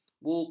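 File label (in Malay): "bukan", Malay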